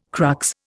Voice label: "crux", Indian

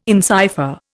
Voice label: "encipher", Indian

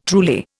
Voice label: "truly", Indian

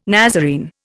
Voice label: "Nazarene", Indian

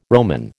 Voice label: "Roman", American

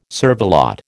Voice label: "cervelat", American

